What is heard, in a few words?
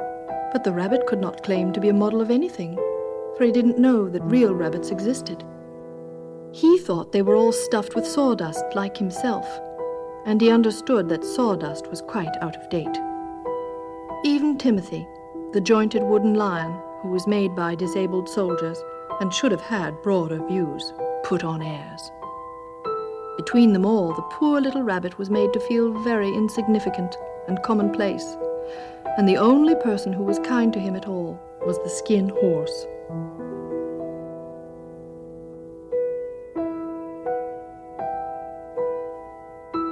Toys (Narration)